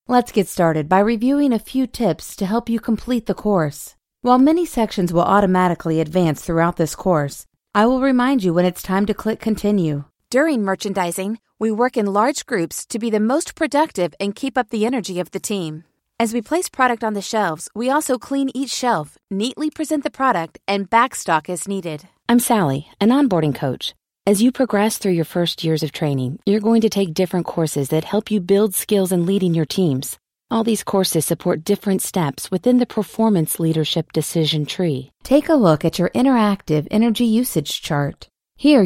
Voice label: eLearning